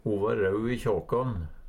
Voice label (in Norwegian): kjakje, Numedalsmål; Substantiv hankjønn